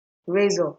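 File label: "razor", Nigerian Pidgin, female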